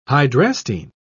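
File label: "hydrastine", British English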